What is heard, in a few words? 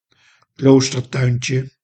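"kloostertuintje", Dutch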